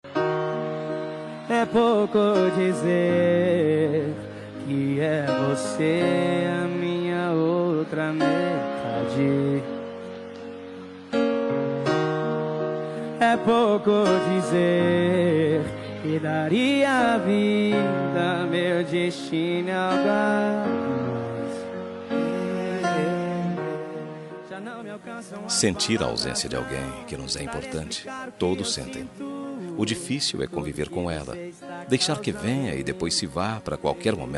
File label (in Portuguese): romatica mas distante